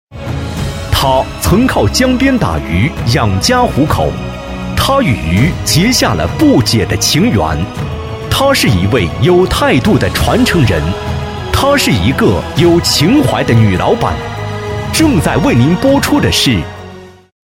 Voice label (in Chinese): 男, 栏目片头